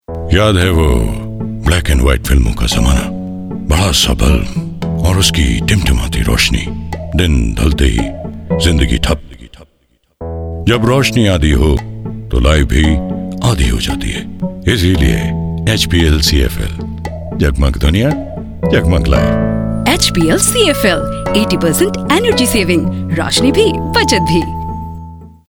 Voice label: promo hindi